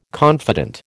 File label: "confident", American